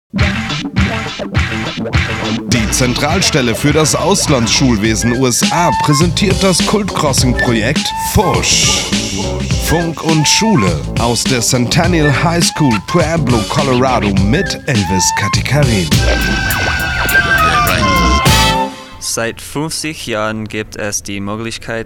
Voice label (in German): Umfrage Warum lernen wir Deutsch